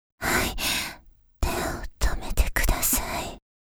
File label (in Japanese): 「はい、手をとめてください」（ささやき）; ストップパーツ（エクササイズ間）; 一度手を止める